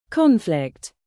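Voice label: "bass", noun, British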